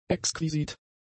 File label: "exquisit", German; adjectives